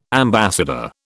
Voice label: "ambassador", British